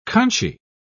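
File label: "conchy", British English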